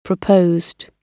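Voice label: "proposed", British English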